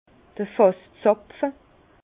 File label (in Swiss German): "fasszapfe", Bas Rhin; vin; bonde